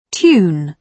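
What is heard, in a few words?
"tune", British English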